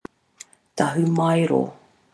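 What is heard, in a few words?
"tahumaero", Māori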